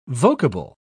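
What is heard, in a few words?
"vocable", British English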